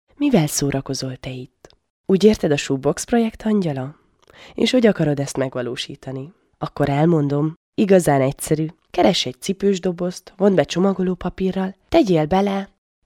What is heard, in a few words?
Hungarian female